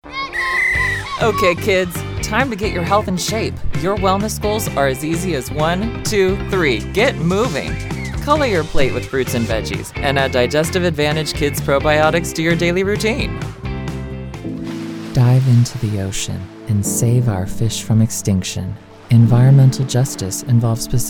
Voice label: English Commercial